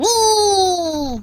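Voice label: "Nooo", British; hedgewars; Default uk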